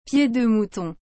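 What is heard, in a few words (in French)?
"pieds de mouton", French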